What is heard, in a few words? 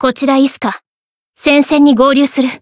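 Voice variable chant, Japanese; isuka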